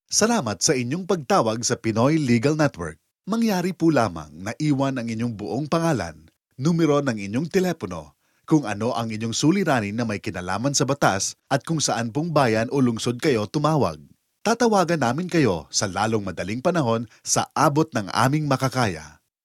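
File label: Tagalog IVR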